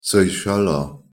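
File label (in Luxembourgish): "seycheller", Luxembourgish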